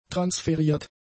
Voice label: "transferiert", German; adjectives

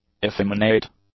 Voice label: "effeminate", English; adjectives

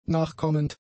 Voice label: "nachkommend", German; adjectives

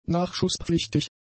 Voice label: "nachschusspflichtig", German; adjectives

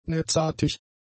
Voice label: "netzartig", German; adjectives

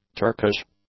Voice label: "Turkish", english; adjectives

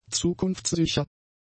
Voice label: "zukunftssicher", German; adjectives

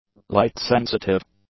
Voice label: "light-sensitive", english; adjectives

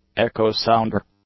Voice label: "echo-sounder", English; nouns

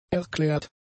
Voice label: "erklärt", German; adjectives

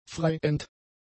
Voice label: "freiend", German; adjectives

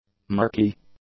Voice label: "murky", English; adjectives

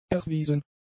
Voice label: "erwiesen", German; adjectives

